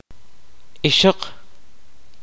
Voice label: kaqchikel; woman